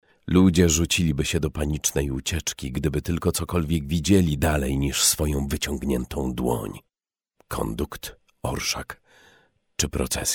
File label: audiobook